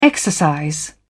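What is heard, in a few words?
"exercise", British English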